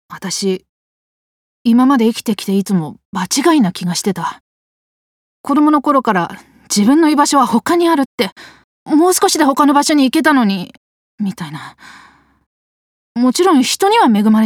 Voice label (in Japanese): 自分の気持ちに気付く女